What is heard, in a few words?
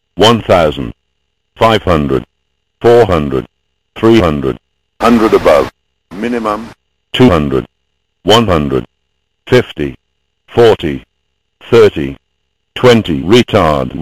Airbus Callout